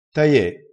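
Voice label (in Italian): "taie", dialetto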